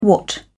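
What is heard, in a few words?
"watt", British English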